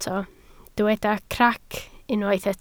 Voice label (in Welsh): "crac", Welsh